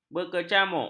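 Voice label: "berkecamuk", Malay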